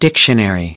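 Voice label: "dictionary", English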